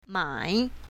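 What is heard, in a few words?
"mai3", Chinese pinyin, south, female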